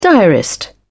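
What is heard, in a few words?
"diarist", British English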